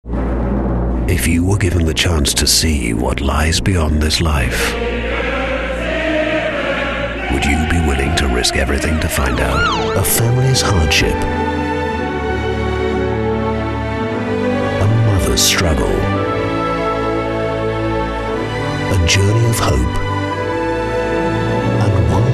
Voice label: british promos